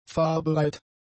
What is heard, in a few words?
"fahrbereit", German; adjectives